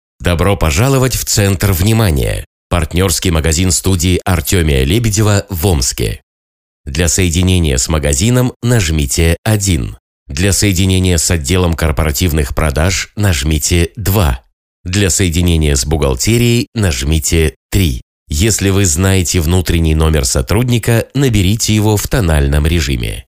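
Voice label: answering machine; avtootvetchik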